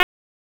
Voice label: Police Tac, p25; wake p25

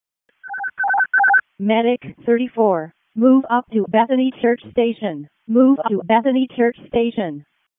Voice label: EMS ALERT, p25

